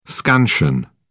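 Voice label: "scansion", British English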